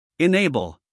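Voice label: "enable", American English, male; everyone-can-use-english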